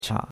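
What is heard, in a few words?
"cha3", Chinese; Cours de Chinois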